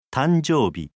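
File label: "tanjoubi", Japanese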